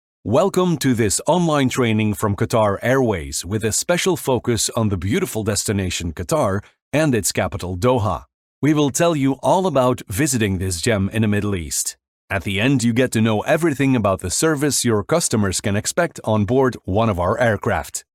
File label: english international e-learning; Global English voice talent